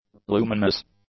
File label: "luminous", English; adjectives